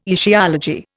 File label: "etiology", Indian